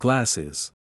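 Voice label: "glasses", English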